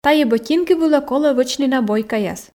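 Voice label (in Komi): "тайӧ ботинки вылӧ колӧ вӧчны набойкаяс", Komi, female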